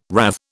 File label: "rathe", British